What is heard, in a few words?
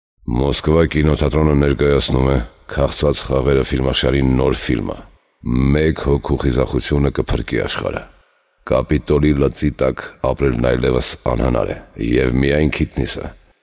armenian voiceover locutor armenia